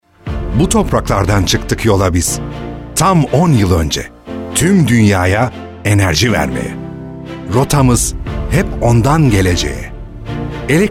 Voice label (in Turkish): tanitim; Promotional Movie Voice Over